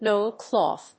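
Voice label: "noil cloth", English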